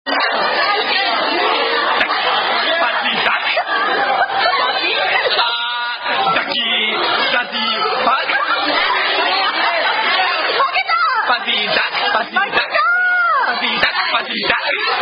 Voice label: Japanese Man Non-Game; Fuzzy Duck

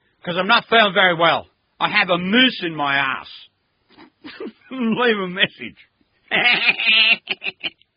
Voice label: Answering Machine Message

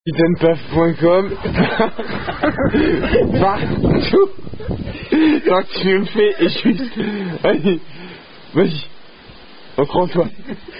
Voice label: French Are You A Cardinal Drinking Game; Les Sheriffs Du Capitainpaf La Suite - 2

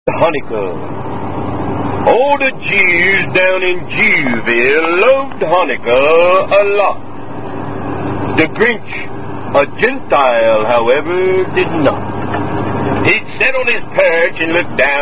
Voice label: Rhymed Recitation; ''How The Grinch Stole Hanukkah''

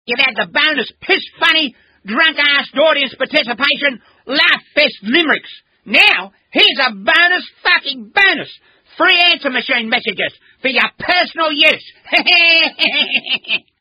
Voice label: Answering Machine Messages

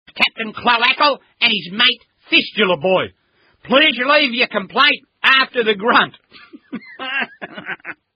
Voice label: Answering Machine Message

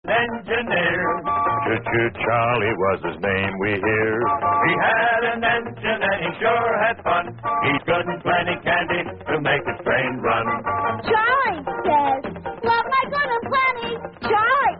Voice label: Candy Commercial; 1950s; Good Plenty; Craven A (Tune) Variant Of Casey Jones

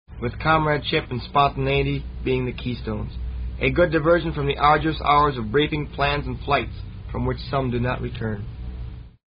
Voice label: Closing Narration; songs from korat RTAFB

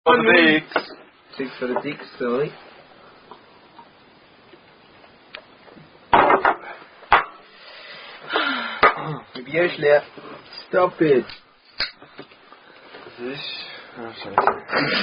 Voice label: Trinkspiel, German Card Drinking Game; Unknown German Card Drinking Game

